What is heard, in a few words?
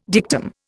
"dictum", Indian